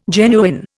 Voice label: "genuine", Indian